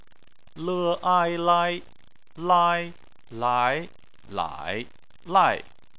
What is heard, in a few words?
"lai", chinese